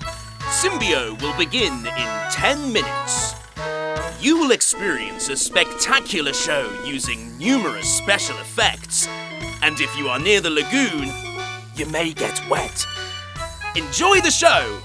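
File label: Ocean Park voice over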